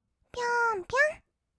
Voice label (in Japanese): "ぴょんぴょん", kawaii voice; 1200 kawaii voice!!; 色々なワンショット